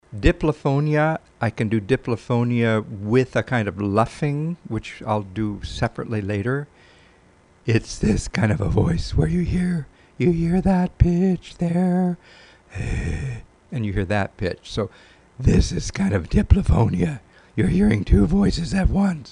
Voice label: Diplophonia